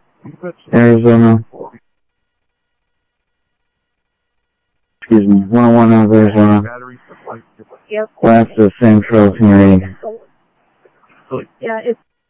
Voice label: Sheriff Common, scanner audio trunk; MCSO - Info; ThompsonPeakScot

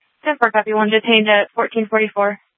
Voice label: Sheriff, scanner; Shrf Northeast

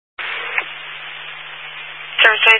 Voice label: Highway Patrol, Phoenix Metro West Area, scanner; DPS

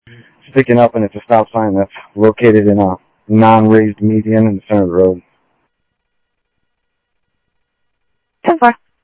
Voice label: Sheriff, scanner audio

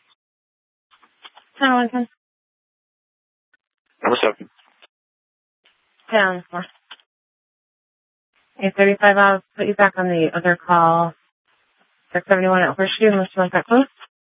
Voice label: Sheriff, scanner P25

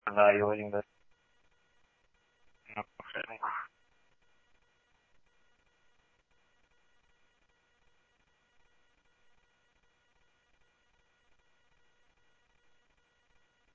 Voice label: Scottsdale Police, scanner audio; H-Scottsdale; SCTSDLE PD - PD1

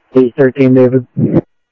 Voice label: Central Sheriff Central, scanner audio trunk

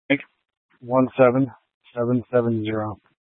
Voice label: Dispatch, scanner

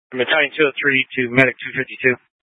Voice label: Fire Departments, scanner

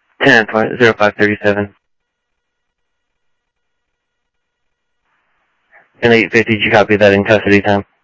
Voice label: scanner audio, Sheriff

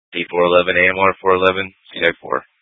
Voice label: FD Dpts VHF 156.240 Patch

